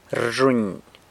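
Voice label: "rzhuny", runs, San Lucas Quiaviní Zapotec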